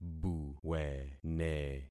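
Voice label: "buwene", concatenated syllables; Affixing experiment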